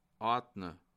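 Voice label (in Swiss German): "ordne", Alsatian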